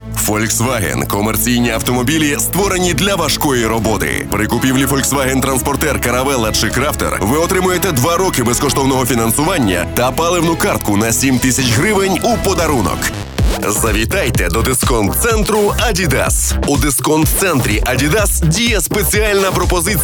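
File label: Ukrainian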